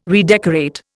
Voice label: "redecorate", Indian